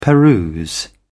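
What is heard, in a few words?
"peruse", British English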